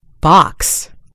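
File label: "box", American English; gcompris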